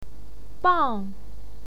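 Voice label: "bang", Mandarin Chinese, fourth tone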